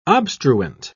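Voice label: "obstruent", British English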